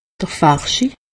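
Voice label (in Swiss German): "farschi", Haut Rhin; medecine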